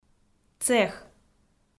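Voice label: "цех", Komi, female; kpv audio dict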